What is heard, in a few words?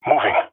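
on my way, jungle male epic; omw; vo; agents; Source Model Packs; Counter Strike Model Pack; CS2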